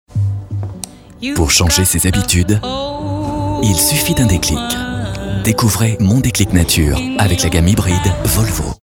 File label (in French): naturel elegant, comedien voix off parrainage; VOLVO naturel, élégant